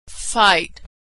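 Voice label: "fight", American English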